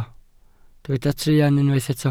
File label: "truan", Welsh